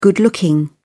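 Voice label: "good-looking", British English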